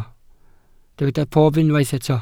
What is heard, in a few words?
"pob", Welsh